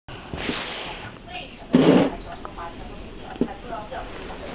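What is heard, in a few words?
"wan2ju4", Mandarin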